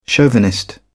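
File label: "chauvinist", British English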